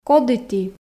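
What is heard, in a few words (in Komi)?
коді ті, Komi, female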